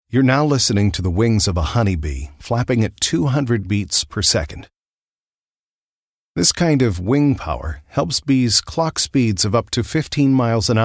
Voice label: documentary